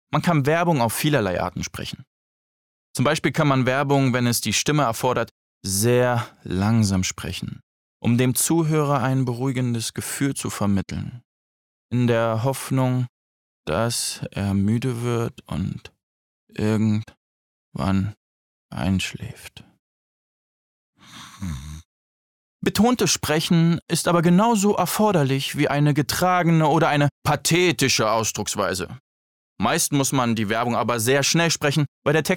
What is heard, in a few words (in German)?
erzaehlung